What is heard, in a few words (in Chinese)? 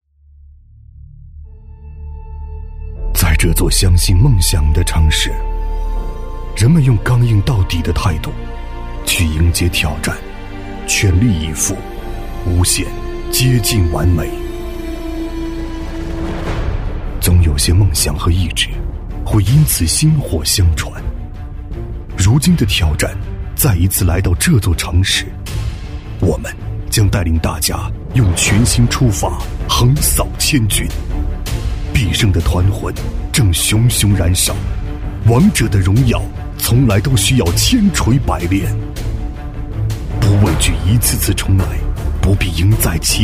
男 广告配音 质感走心力度; 《王者荣耀》